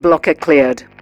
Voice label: advisor notification tile blocker clear, militarist; Stellaris; ethics